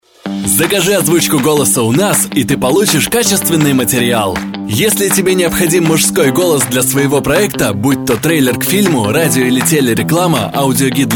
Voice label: Russian voice over, male commercial